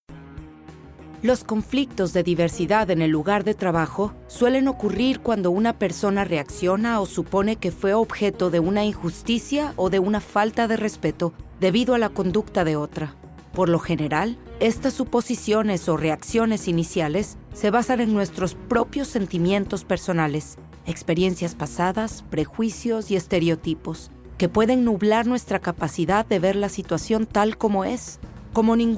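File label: Spanish Mexican female Corporate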